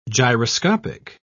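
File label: "gyroscopic", British English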